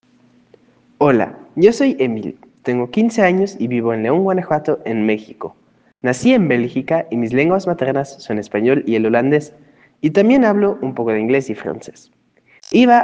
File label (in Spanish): Testimonio; testimoniales